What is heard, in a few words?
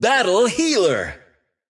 intense